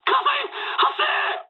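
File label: on fire mood med, speech japanese; War Thunder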